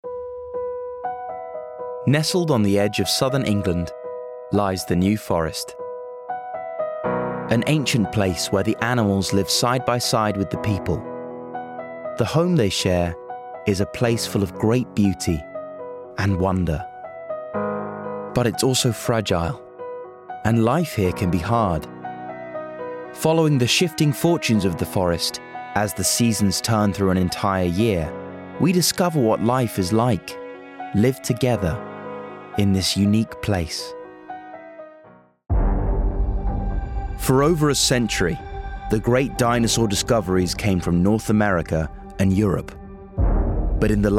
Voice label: doco comp

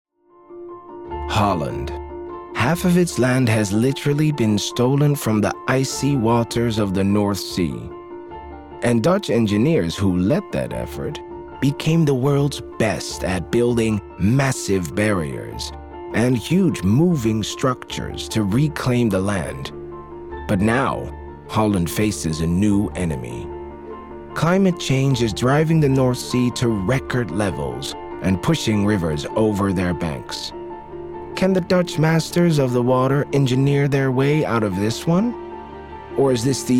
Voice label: English narration

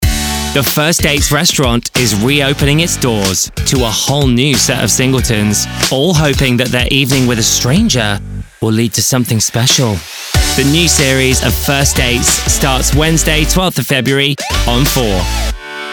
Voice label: Promo; First Dates

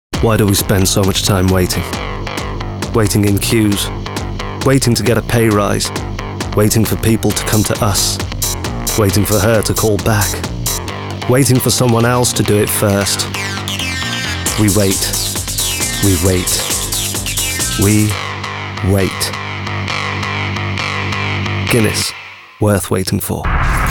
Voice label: Commercial

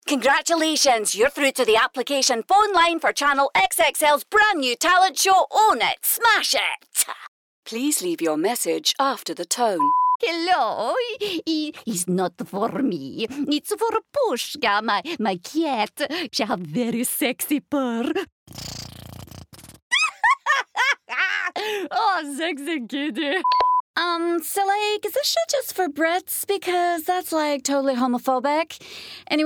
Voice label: character

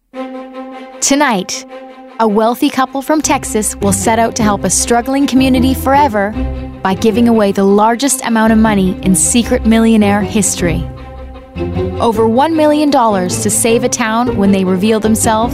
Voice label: DOCUMENTARY Conversational Cool Friendly; Secret Millionaire

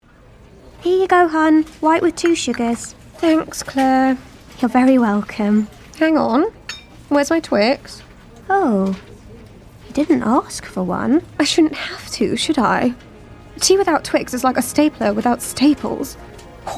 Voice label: character; twix

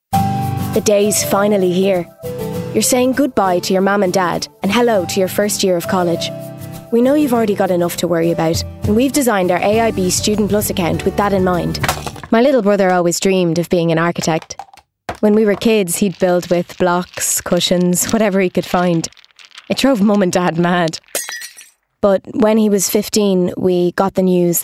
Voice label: Commercial; Voicereel

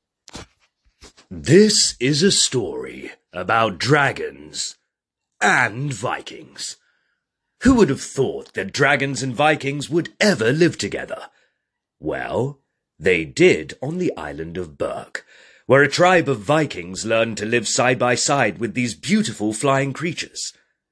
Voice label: narrator deeper; Commercial Reel

